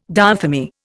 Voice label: "diathermy", Indian